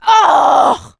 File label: reaction negative, survivor voice; producer